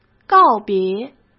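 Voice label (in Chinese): "告别", Mandarin Chinese; hsk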